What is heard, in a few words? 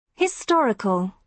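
"historical", British English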